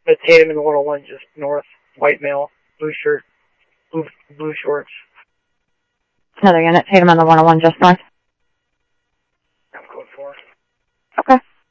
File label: Phoenix PD, scanner audio